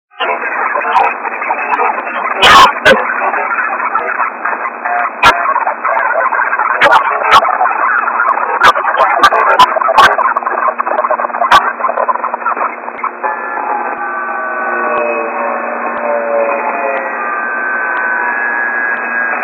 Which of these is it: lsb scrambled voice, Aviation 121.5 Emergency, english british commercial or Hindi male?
lsb scrambled voice